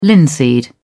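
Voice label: "linseed", British English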